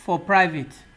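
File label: "for private", Nigerian Pidgin, male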